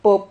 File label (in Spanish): "pop", Silabas